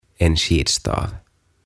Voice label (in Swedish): "En Skidstav" Hiihtosauva